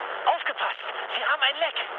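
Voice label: German damaged water mood med, speech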